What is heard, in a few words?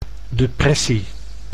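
"depressie", Dutch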